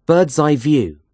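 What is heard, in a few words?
"bird's eye view", English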